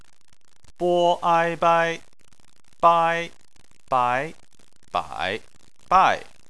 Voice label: "bai", Chinese

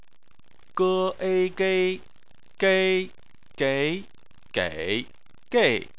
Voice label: "gei", chinese

